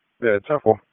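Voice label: Police Southwest District Dispatch, p25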